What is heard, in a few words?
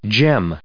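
"gem", English, us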